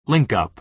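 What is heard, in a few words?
"linkup", American English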